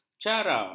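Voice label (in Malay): "cara", Malay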